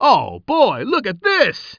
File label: commentator